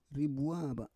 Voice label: "ribua va", Roon

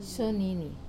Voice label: "sonini", wobble, Wamesa

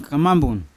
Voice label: "kamambun" butterfly, Yawa

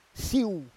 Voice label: "siu", Roon

